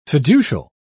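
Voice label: "fiducial", English, British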